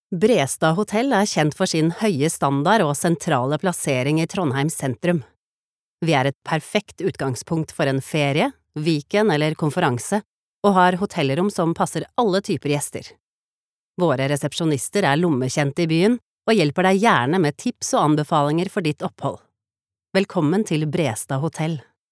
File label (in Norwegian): no informativ, norwegian, female